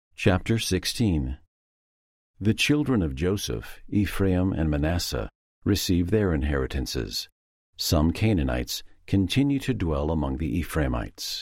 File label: female voice, eng; joshua 16; the old testament